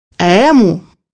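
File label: "emu", Russian; bukvar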